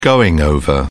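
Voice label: "going-over", British English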